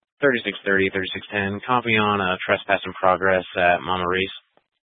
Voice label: Police Dispatch, scanner; Police 1 Dispatch